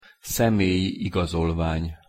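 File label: "szemelyi igazolvany", Hungarian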